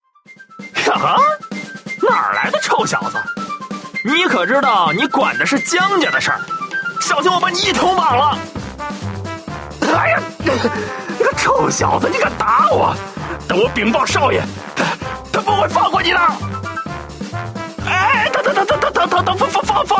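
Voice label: male other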